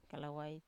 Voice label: "kalawai", Wamesa